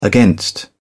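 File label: "against", British English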